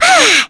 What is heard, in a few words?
Mirianne Vox Casting, voices heroes en; kingsraid-audio